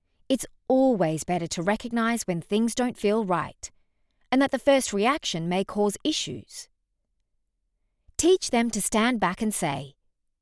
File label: Narration, en-US